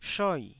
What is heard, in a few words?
"shoi4", taiwanese